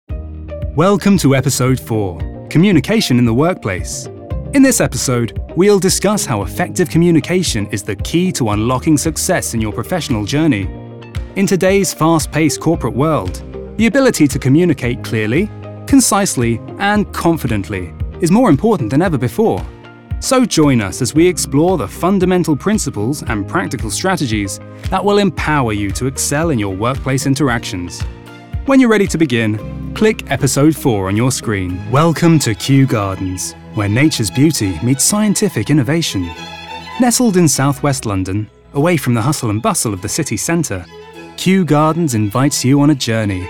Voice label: Narrative

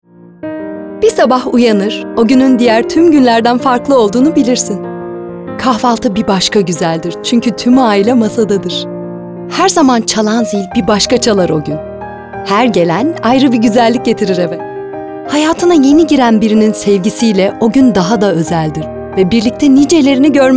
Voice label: Narrative Turkish; LCW bayram message

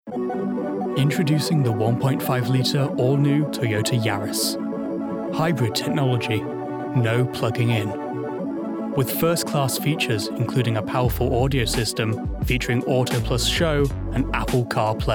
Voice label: Commercials Reel

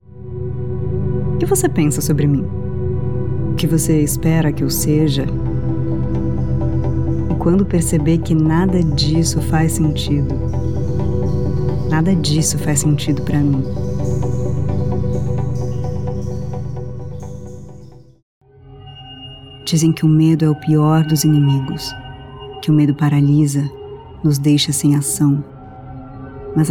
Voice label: Natural

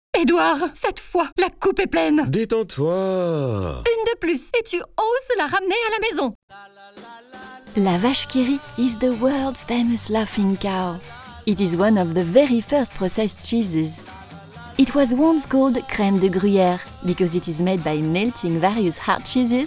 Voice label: FR EN

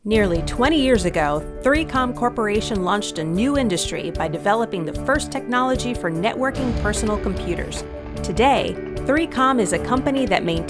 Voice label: Corporate

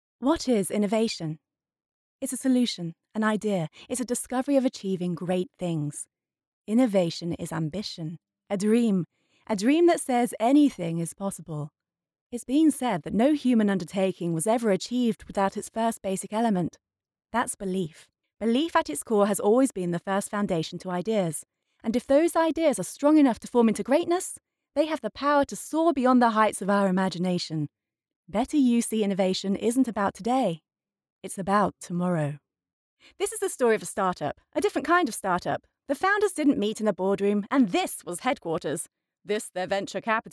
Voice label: Corporate

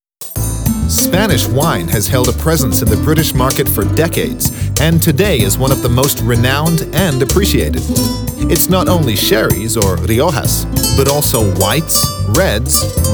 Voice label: Narration

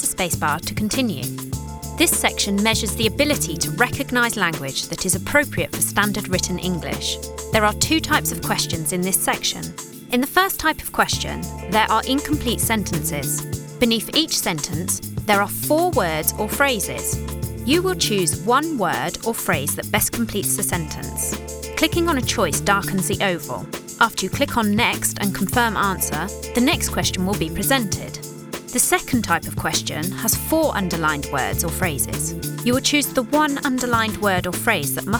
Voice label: e Learning

